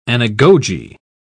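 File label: "anagoge", British English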